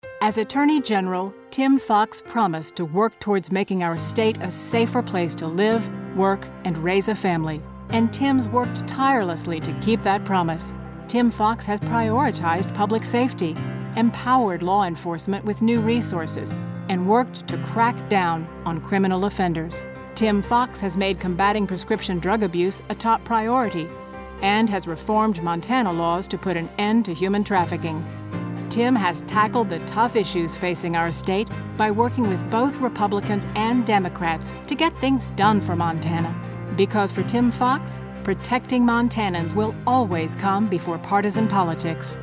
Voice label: radio; Fox Promise